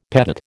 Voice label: "pedate", American